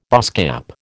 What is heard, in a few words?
"baasskap", American